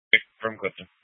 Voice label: Mesa County Sheriff Dispatch

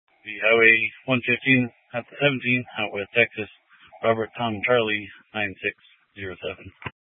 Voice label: Troop 2A Dispatch, scanner audio recording

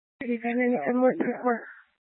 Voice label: Police Dispatch, Scanner audio; Police 1 Dispatch

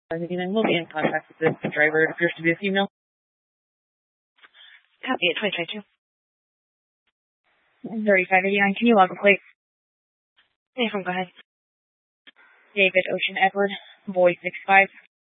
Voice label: Police Dispatch; Police 1 Dispatch

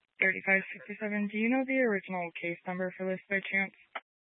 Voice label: Police Dispatch; Police 1 Dispatch

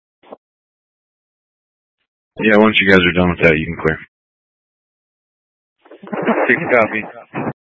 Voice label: Incident Tac 1, scanner

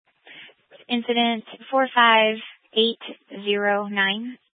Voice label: Police 1 Dispatch; scanner

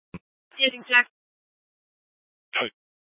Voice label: Sheriff Dispatch; Mesa County

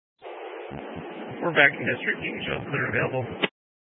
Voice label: Fire Department Dispatch; Grand Junction

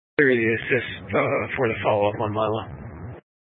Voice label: Sheriff Dispatch; Mesa County

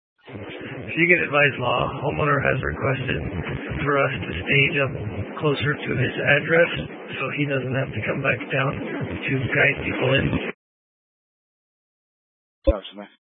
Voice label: Fire Department Dispatch, scanner

